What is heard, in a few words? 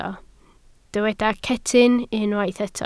"cetyn", Welsh